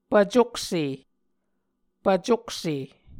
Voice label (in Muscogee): "pvcokse", Muscogee